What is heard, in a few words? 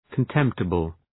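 "contemptible", English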